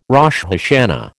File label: "Rosh Hashana", American